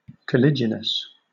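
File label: "caliginous" English, British accent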